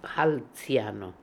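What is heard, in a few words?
"Hanziano", pronunciations; Fourth Way; Beelzebub pronounciations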